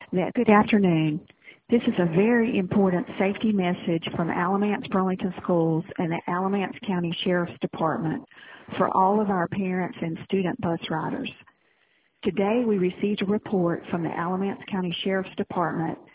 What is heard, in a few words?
voicemail